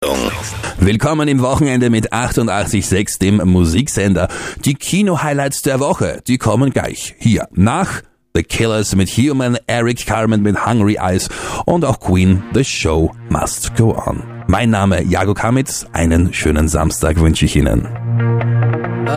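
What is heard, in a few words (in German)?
Opener dry Aircheck, Moderator im Rundfunk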